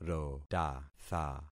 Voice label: "rotatha", concat syllables; Affixing experiment